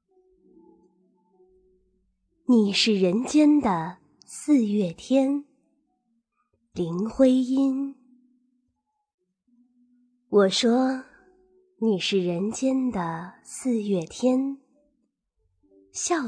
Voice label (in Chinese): 女 诗歌